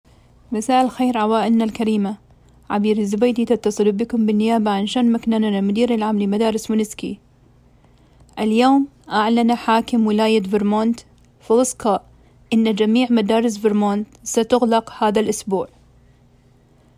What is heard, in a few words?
arabic